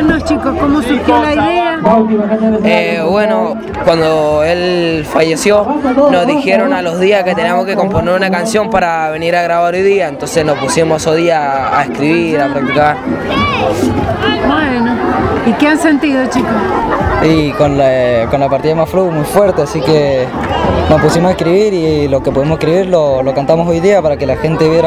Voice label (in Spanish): Entrevista Grupo Hip Hop